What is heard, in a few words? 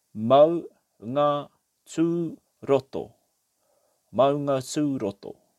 "Maungaturoto", te reo